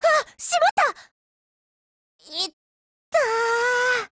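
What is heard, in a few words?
break, Japanese; MPK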